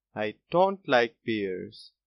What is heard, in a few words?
pear dont like, boy, english; grade1; what do you like